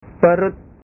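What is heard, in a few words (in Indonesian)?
"perut", Indonesian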